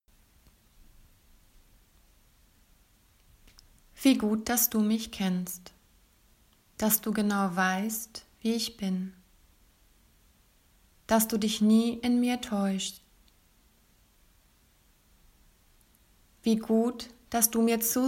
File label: Meditation; Jesusnacht Goettingen; Fastenzeit